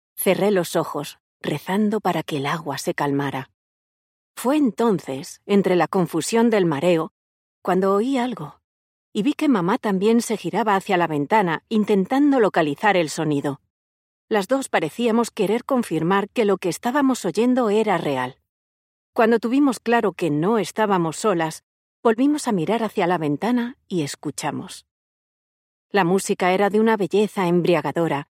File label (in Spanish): Spanish audiobooks